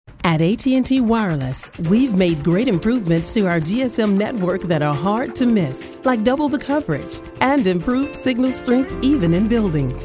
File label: Informative upbeat